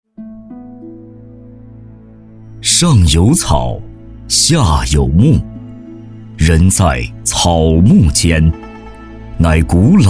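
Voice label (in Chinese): 国语 男声 宣传片 大气浑厚磁性 沉稳; 普洱庄园-茶行宣传片-沉稳抒情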